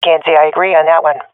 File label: disagree, swat fem; Counter Strike Model Pack; CS:GO